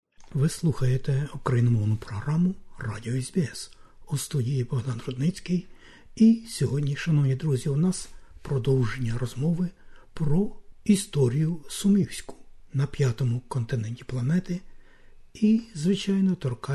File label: ukrainian; SBS Ukrainian; Ukrainian Youth Association in Australia CYM